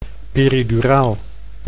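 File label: "periduraal", Dutch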